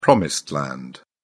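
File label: "promised land", British English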